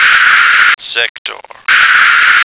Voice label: "sector", American